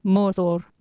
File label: "morthor", Anglo Saxon